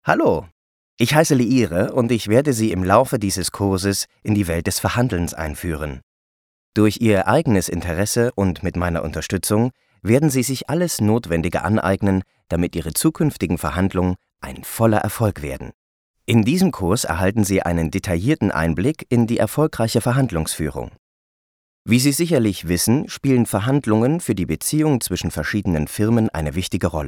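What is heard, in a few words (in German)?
E-Learning, deutsch Männer; sprecher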